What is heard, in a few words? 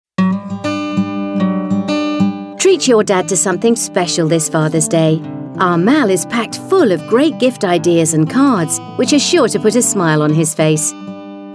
fathers day, female